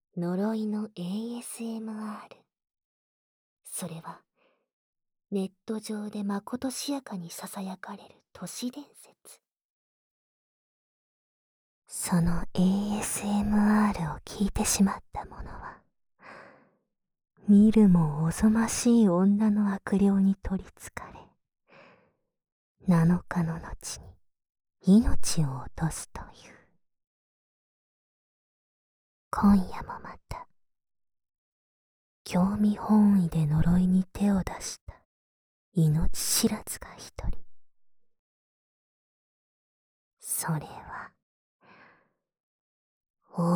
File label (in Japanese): 宣伝ボイス; 耳怨